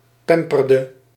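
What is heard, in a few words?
"pamperde", Dutch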